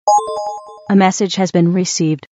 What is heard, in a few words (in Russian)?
zhenskim golosom message recieved